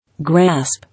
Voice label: "Grasp", English

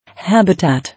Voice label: "Habitat", English

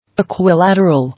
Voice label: "Equilateral", English

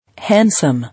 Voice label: "Handsome", English

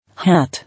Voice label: "Hat", English

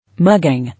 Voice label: "Mugging", English

